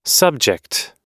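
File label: "subject", US English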